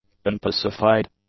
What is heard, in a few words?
"unpacified", english; adjectives